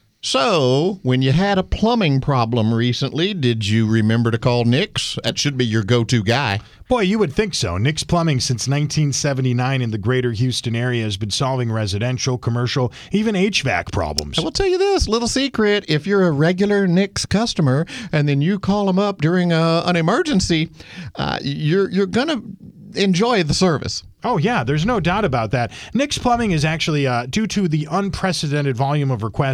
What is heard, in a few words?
RADIO AD